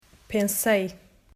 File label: "Pensei", Portuguese